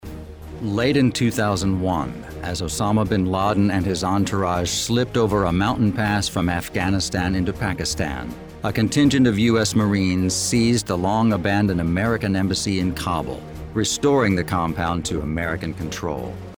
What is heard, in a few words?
Narration; Afghanistan